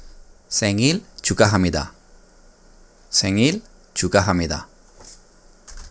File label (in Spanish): Feliz cumpleaños en coreano formal